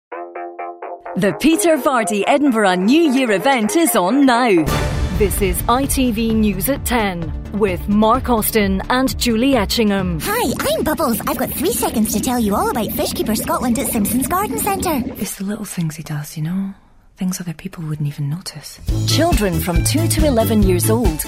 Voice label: scottish